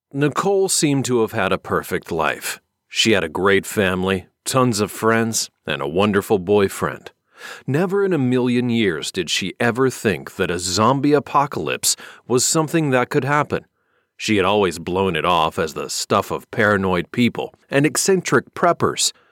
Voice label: american audiobook, Friendly US Accented Voiceover